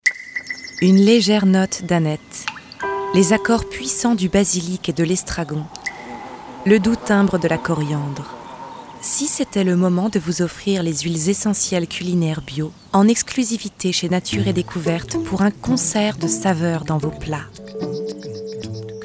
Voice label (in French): RADIO; NATURE ET DECOUVERTE; cabine speak voix off